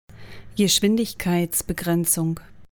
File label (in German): Fahrschule berliner Dialekt Geschwindigkeitsbegrenzung